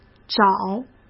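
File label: "找", Mandarin Chinese; hsk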